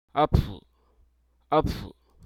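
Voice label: Hruso Aka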